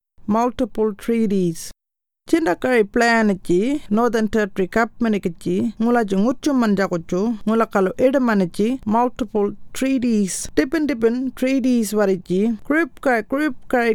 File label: Warlpiri; Multiple Treaties